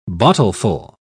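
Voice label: "bottleful", British English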